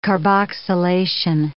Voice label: "carboxylation", English